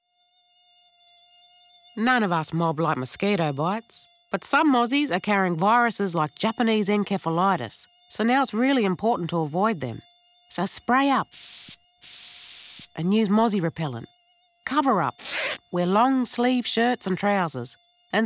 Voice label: radio; aboriginal; mosquito-borne